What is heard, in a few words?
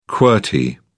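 "qwerty", English, uk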